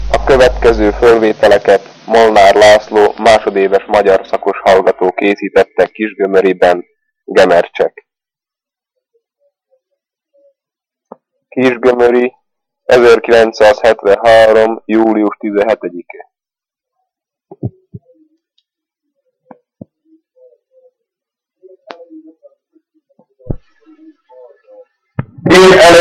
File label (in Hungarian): nyelvjaras gyujtesek